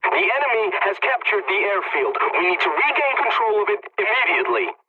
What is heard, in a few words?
pilot capture ground enemy, english; War Thunder